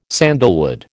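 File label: "sandalwood", American English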